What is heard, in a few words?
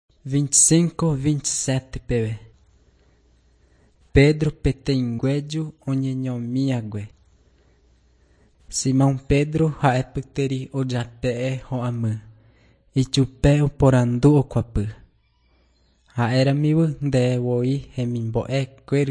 john guarani mbya, bible